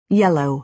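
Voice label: "yellow", American English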